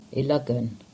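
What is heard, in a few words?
"Illogan", English; place names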